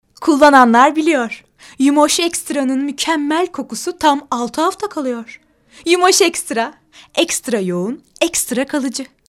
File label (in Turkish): reklam